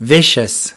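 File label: "vicious", English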